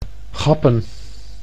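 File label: "gappen", Dutch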